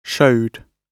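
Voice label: "showed", British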